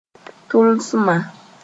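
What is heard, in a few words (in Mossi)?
"tʋlsmã", Mooré; need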